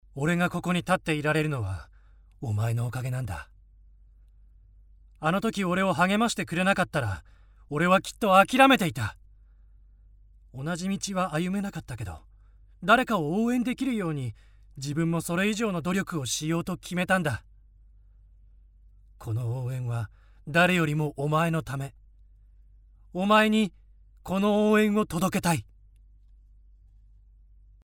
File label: male